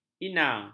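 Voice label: "ina", Malay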